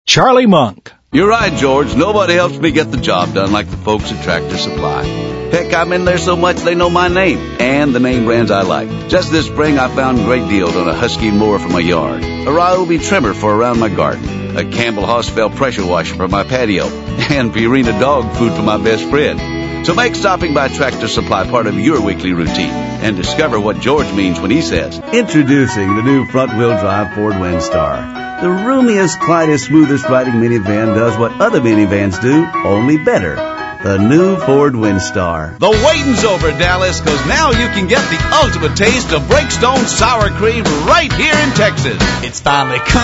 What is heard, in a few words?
male; Spotland Productions